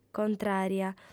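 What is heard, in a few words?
"contrària", female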